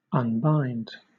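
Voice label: "unbind", British English; pronunciations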